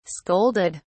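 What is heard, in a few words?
"scolded", British English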